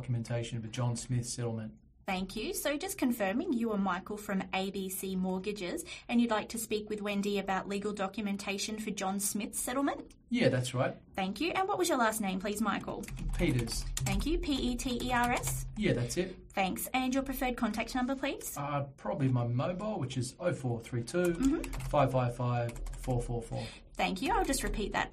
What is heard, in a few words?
business telephone answering service sample call; MyReceptionist